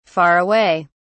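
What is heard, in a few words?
"far away", British English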